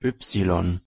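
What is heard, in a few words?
"y" German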